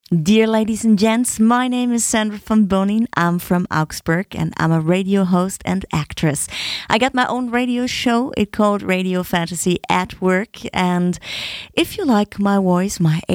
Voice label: About My Voice English